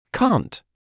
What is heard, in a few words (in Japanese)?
イギリス英語 cant